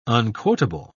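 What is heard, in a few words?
"unquotable", British English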